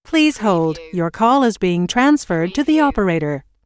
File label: US female phone prompt Please hold - Your call is being transferred to the operator; lynne publishing